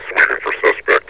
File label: sweeping for suspect, metropolice vo; Half Life Alyx